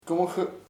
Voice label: "possibly posiblemente", chamacoco